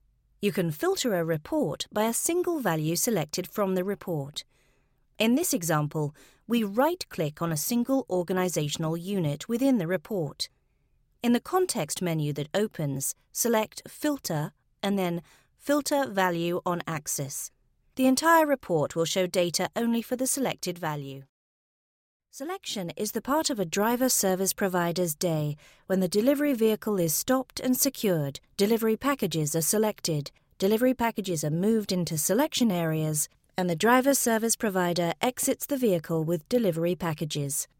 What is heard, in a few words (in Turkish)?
Ingiliz Ingilizcesi Kadin